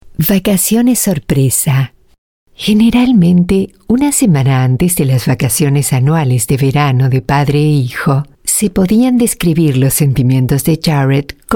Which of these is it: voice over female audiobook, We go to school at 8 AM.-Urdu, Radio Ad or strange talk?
voice over female audiobook